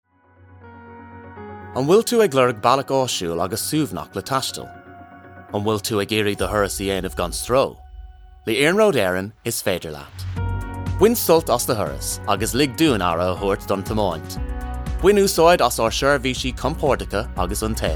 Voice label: Irish language commercial